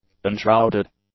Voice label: "unshrouded", english; adjectives